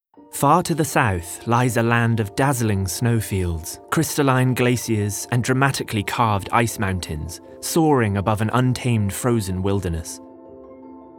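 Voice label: Documentary, American English; Bigmouth Voices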